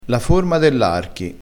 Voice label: "dell", dialettale

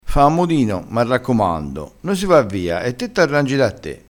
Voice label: "scastagnassela", crespinese

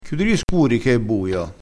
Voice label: "scuro", vocabolario dialettale

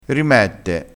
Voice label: "rimette", crespinese

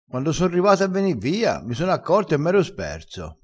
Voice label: "sperso", crespinese

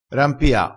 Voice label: "rampia", vocabolario dialettale

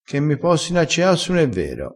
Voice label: "che mi possino accea", crespinese

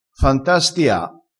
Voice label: "fantastia", crespinese

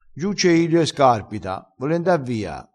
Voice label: "scarpita", crespinese

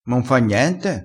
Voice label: "m importa", dialettale crespinese

